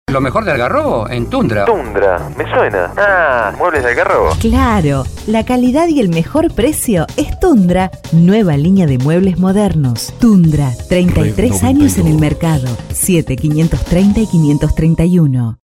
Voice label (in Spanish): spot publicitario radio